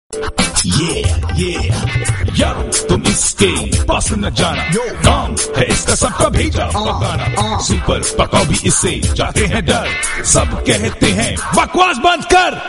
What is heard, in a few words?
funny ringtone; Bakwaas Band Kar